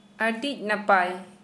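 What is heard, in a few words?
Santali female beautiful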